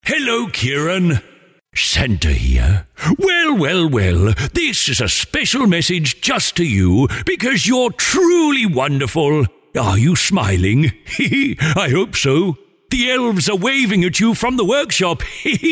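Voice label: Personalised Santa Message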